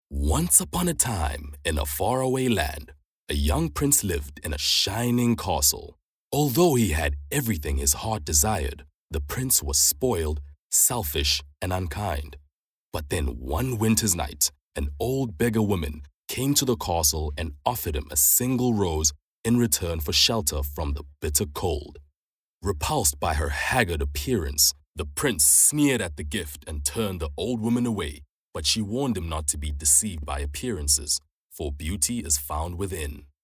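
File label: English South African Narration; Beauty Beast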